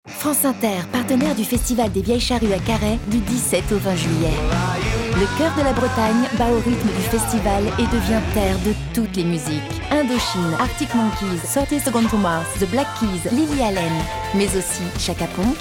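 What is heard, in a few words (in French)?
annonce naturelle, comédienne voix off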